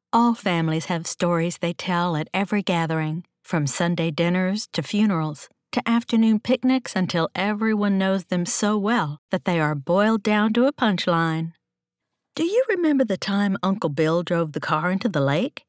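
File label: Memoir, female; Mastered by AudioBee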